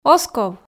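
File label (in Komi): оз ков, Komi, female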